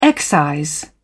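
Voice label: "excise", British English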